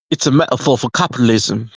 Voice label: voice message